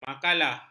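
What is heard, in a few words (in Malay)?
"makalah", Malay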